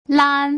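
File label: "lan", Mandarin Chinese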